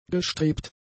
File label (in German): "gestrebt", german; adjectives